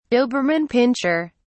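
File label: "doberman pinscher", British English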